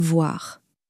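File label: "voir", French, female; flashcards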